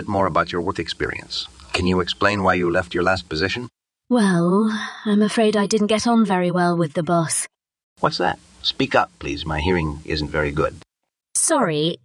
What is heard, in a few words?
Brunch Dialogue